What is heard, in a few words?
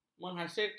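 "menghasilkan", Malay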